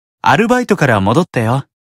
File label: Job Notification Voice; Solomon